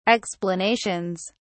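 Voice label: "explanations", English, British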